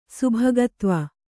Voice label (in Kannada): "ಸುಭಗತ್ವ", Kannada